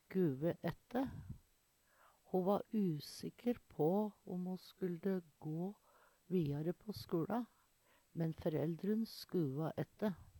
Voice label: skuve aette, Numedalsmål; Eksempel på bruk Ho va usikker om ho skulde gå viare på skula, men føreldun skuva ætte.

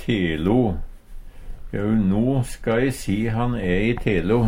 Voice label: telo, Numedalsmål; Adjektiv; Jau no ska e si han e i telo.

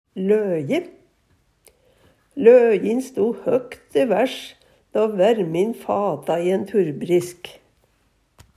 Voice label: loje, Numedalsmål; Substantiv hankjønn; løje

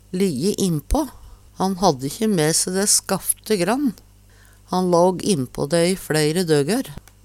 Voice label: lijje innpa, Numedalsmål

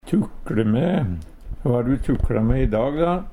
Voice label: "tukLe me", Numedalsmål; eit arbeid det blir lite resultat av

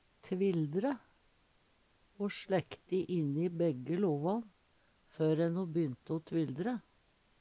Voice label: "tvildre", Numedalsmål; Ho sleikte inni båe lovan før ell ho tok te tvildre.

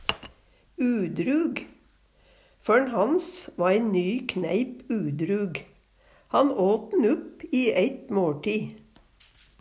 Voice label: udrug, Numedalsmål; udryg

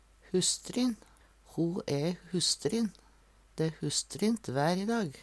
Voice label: "husstrin", Numedalsmål; Dæ æ husstrint vær.; Vêr og føre

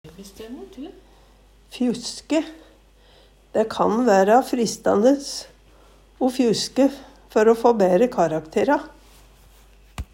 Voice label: fjuske, numedalsmal

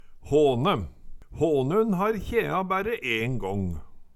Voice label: "håne", Numedalsmål

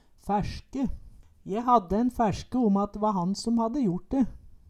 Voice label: fæLske, Numedalsmål; Ordet er ubøyeleg.

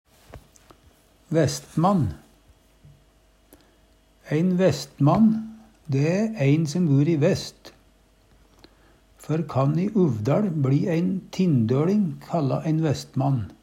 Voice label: vestmann, Numedalsmål; Før kan i UvdaL bLi æin TinndøLing kalla æin vestmann (sjøL om han bur i su)

